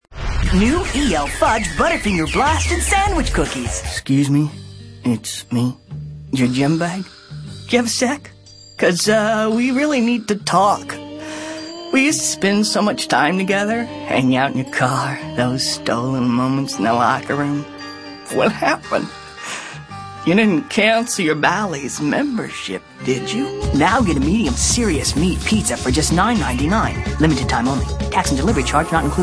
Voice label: male natural character